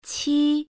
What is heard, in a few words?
"q", Chinese pinyin initial